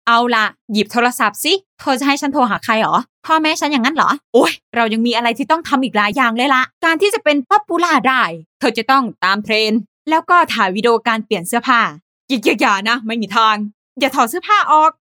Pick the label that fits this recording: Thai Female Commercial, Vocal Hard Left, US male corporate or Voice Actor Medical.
Thai Female Commercial